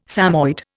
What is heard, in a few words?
"Samoyed", Indian